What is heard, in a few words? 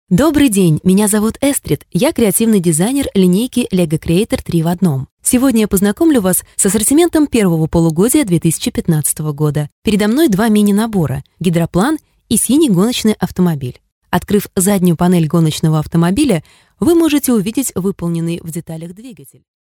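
Russian, female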